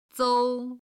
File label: "zou1", Chinese